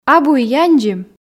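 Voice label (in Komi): "абу и яндзим", female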